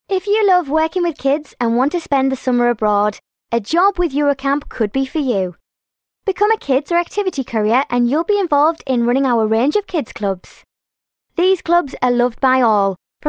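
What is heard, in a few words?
British English, female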